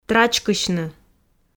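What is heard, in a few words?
"трачкысьны", Komi, female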